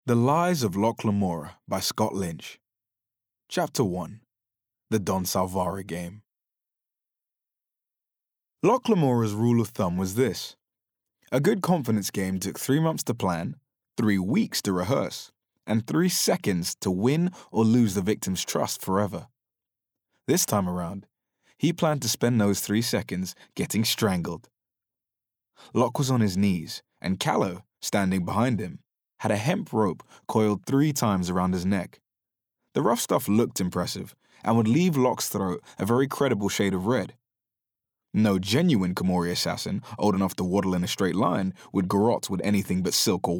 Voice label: audiobook read; lies of locke lamora